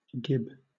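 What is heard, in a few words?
"gib", British English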